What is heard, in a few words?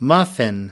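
"muffin", American English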